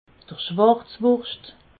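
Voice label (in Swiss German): "schwarzwurscht", Haut-Rhin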